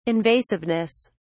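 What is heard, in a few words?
"invasiveness", British English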